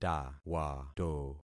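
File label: "tawato", concatenated syllables; Affixing experiment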